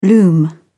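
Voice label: "loom", British English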